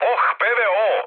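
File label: Serbian damaged aa mood med, speech; War Thunder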